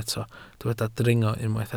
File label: "dringo", Welsh